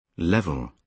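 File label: "level", British English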